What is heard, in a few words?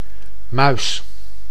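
"muis", Dutch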